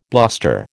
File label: "bluster", American